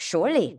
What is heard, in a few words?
female; baldurs1